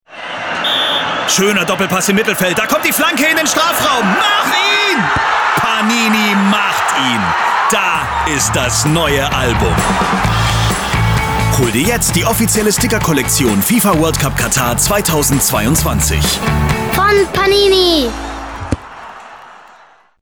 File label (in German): Spot Produkt